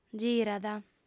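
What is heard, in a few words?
"girat", female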